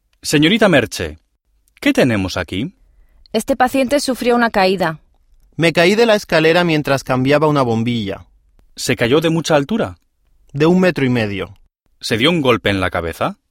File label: dialog